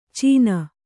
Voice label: "ಚೀನ", Kannada

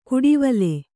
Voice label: "ಕುಡಿವಲೆ", Kannada

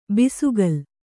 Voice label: "ಬೆಸಕೋಲು", Kannada

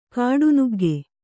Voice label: "ಕಾಡುನುಗ್ಗೆ", Kannada

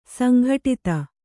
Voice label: "ಸಂಗಡಿತ", Kannada

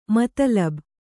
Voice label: "ಮತಲಬು", Kannada

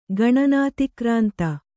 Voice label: "ಗಣನಾತಿಕ್ರಾಂತ", kannada

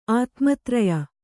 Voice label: "ಆತ್ಮತ್ರಯ", Kannada; ātmatraya; noun

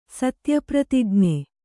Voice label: "ಸತ್ಯಪ್ರತಿಜ್ಞ", Kannada

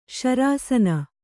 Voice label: "ಶರಸೋನೆ", Kannada

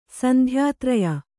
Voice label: "ಸಂಧ್ಯಾತ್ರಯ", Kannada; sandhyātraya; noun

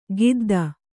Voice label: "ಖುದ್ದ", Kannada

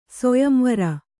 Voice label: "ಸೊಯಂವರ", Kannada; soyamvara; noun; = (correctly, ಸ್ವಯಂವರ) ಸೊಯಂಬರ.

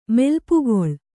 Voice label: "ಮೆಲ್ಪುಗೊಳ್", Kannada

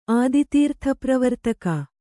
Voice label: "ಆದಿತೀರ್ಥಪ್ರವರ್ತಕ", kannada

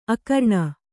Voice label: "ಆಕರಣೆ", kannada

